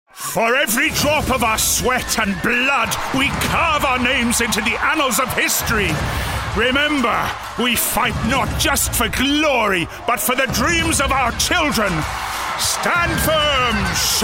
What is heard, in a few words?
Characters, voiceover